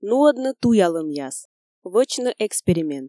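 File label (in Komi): нуӧдны туялӧмъяс, вӧчны эксперимент, Komi, female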